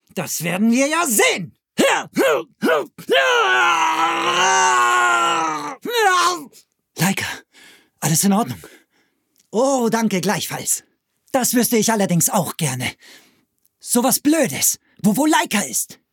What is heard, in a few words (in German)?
Anime